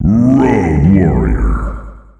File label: "Roadwarrior", announcer male; Unreal Tournament; ut2004